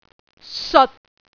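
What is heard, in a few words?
"seot6", Cantonese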